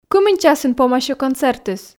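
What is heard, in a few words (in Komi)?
кымын часын помасьӧ концертыс, Komi, female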